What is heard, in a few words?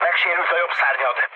right wing, mood low, speech hun; War Thunder